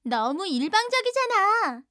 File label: ui yell